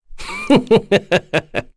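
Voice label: Vox Casting, Korean; Kain; kingsraid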